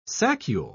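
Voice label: "saccule", English, British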